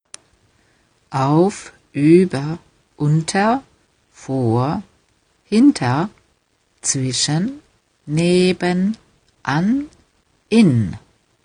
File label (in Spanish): wechselpraepositionen deutsch preposiciones variables aleman